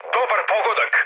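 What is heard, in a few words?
Serbian bomb success mood med, speech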